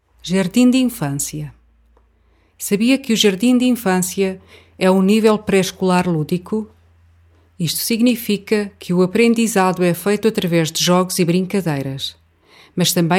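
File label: Portuguese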